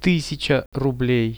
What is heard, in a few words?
"100 rublei", Russian; Тысяча рублей.